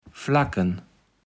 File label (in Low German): "flacken", kaevels platt